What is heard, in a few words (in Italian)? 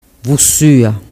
"vussua", dialettu